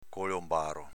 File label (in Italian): "colombaro", dialetto veneto